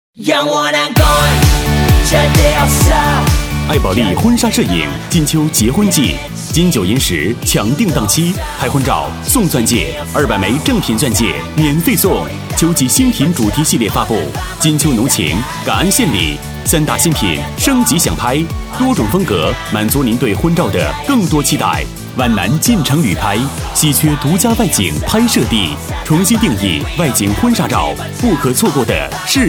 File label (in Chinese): 促销 婚纱摄影男 激情; 艾宝丽